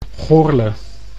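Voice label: "Goirle", Dutch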